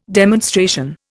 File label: "demonstration", Indian